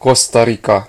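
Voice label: "costa rica", Hungarian; gcompris; geography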